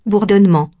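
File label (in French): "bourdonnement" French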